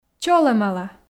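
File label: "чолӧмала", Komi, female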